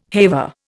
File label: "haver", Indian